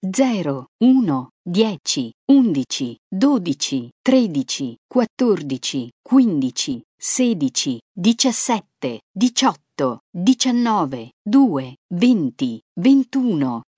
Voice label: Dealer Voice, sk, Roulette; real Dealer European Roulette Classic; it